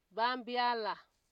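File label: "babiala", Nigerian Pidgin, female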